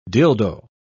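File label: "dildoe", British English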